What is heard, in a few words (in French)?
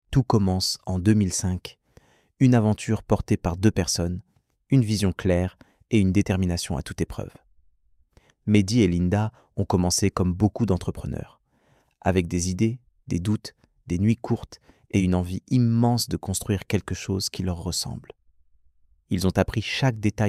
voix off; notre histoire; Pause Concours